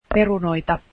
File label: potatoes, finnish